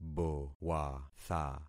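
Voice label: "bowatha", concatenated syllables; Affixing experiment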